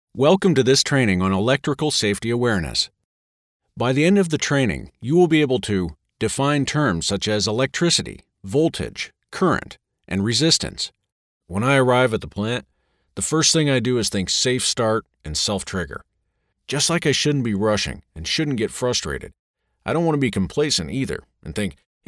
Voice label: ELearning